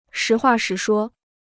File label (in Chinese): "实话实说", Chinese